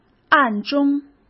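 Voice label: "暗中" Mandarin Chinese; chinese flashcards; hsk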